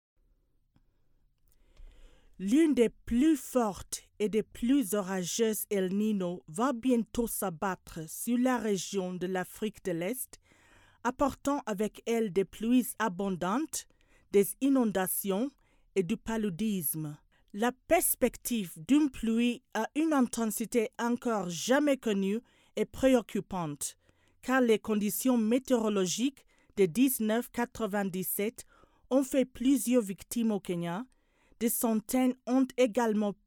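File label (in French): African French, female, narration; voice demos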